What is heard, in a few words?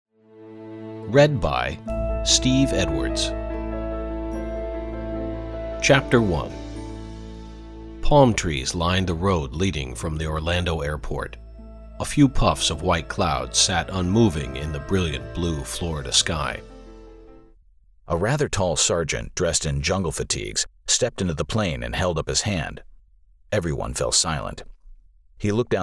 Audiobook